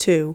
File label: two, english; mpib memoreye